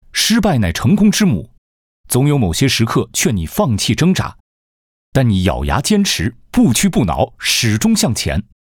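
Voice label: Chinese, male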